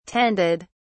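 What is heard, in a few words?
"tended", British English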